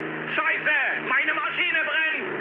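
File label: German on fire mood low, speech; War Thunder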